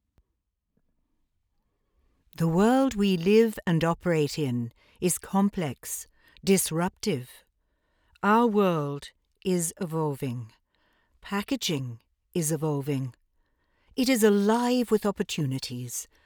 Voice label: Explainer; Hutamaki